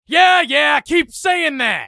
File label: battle cry; eft; usec